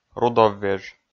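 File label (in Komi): "рудоввеж", Komi, male; kpv audio dict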